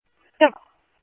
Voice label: Police, scanner; H-Scottsdale; ScottsdalePolice

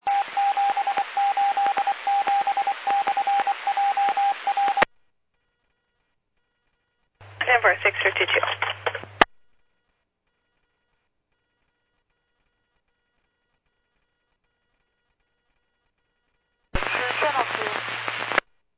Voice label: Medevac, scanner; PHIAIREVACHelRad

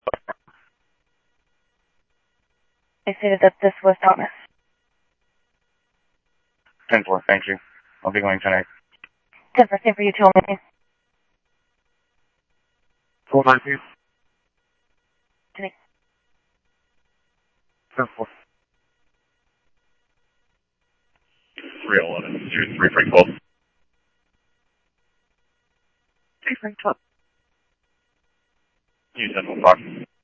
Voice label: Scottsdale Police, scanner; ThompsonPeakHigh; SCTSDLE PD - PD2

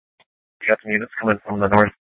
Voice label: PD Dispatch

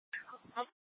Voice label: Light Rail Emergency, scanner

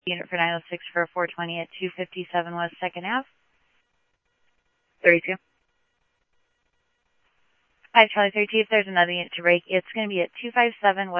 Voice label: Mesa Simulcast, MESA PD, scanner audio

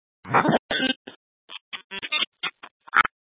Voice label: PD Fire Dispatch VHF Patch, scanner; Phx; B- Phx Fire and Public Works

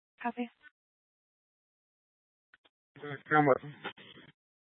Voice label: Tempe PD, scanner; Rgnl Wireless Co-op (RWC)

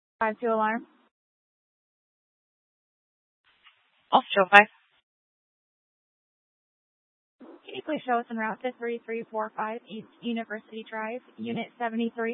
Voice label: Fire Departments Mesa Simulcast; TOPAZ

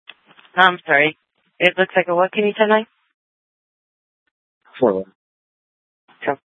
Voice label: Sheriff, scanner; Maricopa County (P25) - Thompson Peak; Shrf Southeast

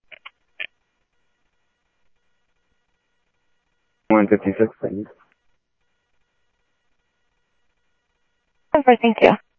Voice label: Sheriff-EastCnty, scanner audio; MCSO - EAST; ThompsonPeakScot

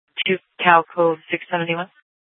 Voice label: Sheriff, scanner P25; Shrf Lakes

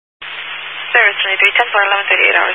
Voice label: Highway Patrol, Phoenix Metro West Area, scanner

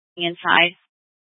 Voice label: Fire Dpts VHF 154.800 Patch, scanner; TOPAZ PD (TRWC); Thompson Peak

